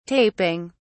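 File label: "taping", British English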